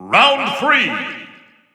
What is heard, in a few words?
Narration Round 3; SmashWiiU